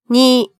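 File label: "ni", Japanese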